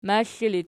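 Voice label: "maxli" ergative, Archi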